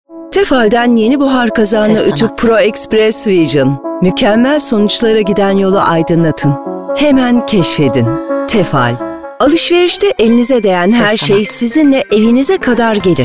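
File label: Turkish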